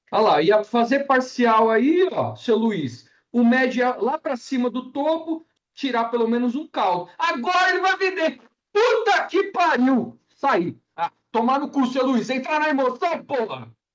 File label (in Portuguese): bronca completa